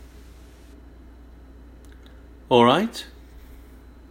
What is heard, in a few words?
All right UK